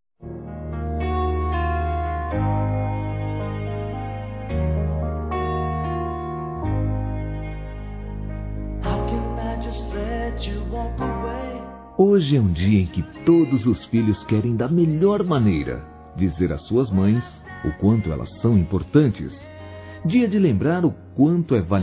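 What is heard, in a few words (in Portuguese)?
PARA TIA, Masculino; Dia das Mães; Telemensagens 2017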